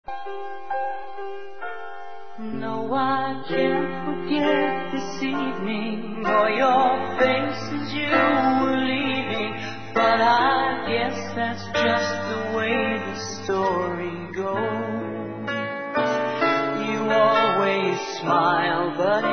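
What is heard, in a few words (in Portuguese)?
PERDÃO NEUTRO, masculino; Desculpas; Telemensagens 2017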